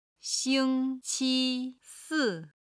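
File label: "xingqisi", Chinese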